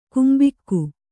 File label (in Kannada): "ಕಂಬಿಗ", Kannada